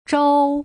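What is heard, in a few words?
"zhou", Putonghua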